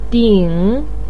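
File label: "ding" third tone, Mandarin Chinese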